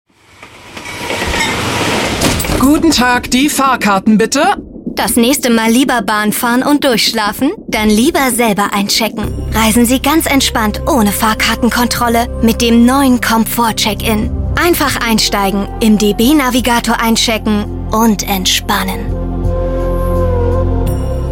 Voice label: Werbung Mobilität

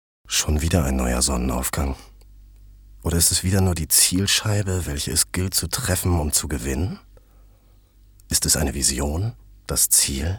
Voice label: erzaehlung

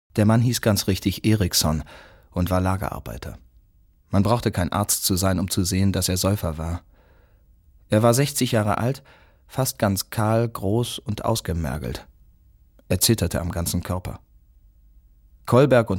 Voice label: erzaehlung

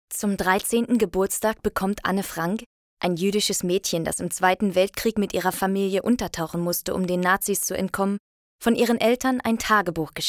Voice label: audioguide

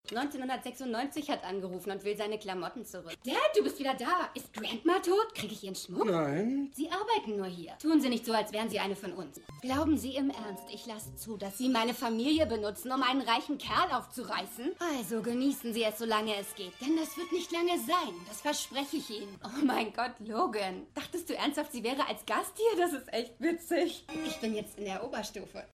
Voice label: Synchron Zicke; LOFT VOICES

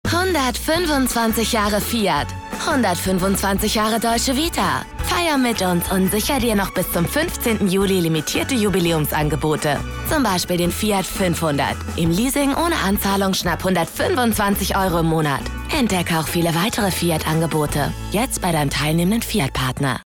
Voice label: Commercial (Werbung), German, Hessisch, French; 125 Jahre FIAT

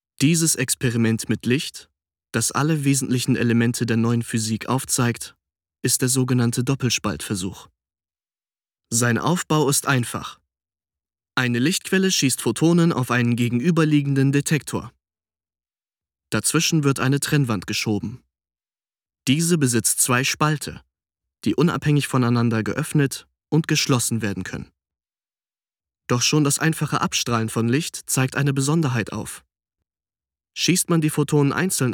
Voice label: Dokumentation

